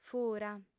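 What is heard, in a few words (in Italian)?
"fura", lingua sarda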